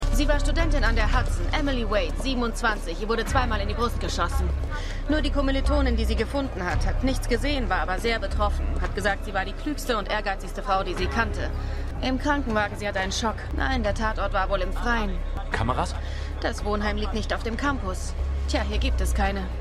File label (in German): Polizistin